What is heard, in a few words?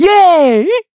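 Vox Happy, Korean; Evan; kingsraid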